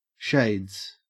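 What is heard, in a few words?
"shades", Australian English; pronunciations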